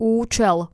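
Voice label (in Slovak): "ucel", Slovak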